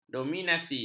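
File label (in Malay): "dominasi", Malay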